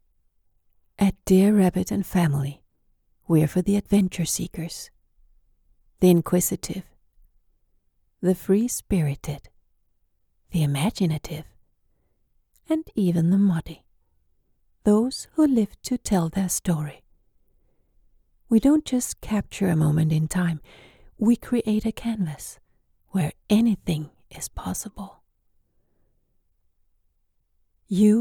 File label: Dreamy voice over English